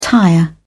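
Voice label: "tire", British English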